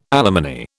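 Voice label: "alimony", British English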